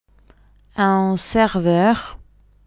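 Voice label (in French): "un serveur", French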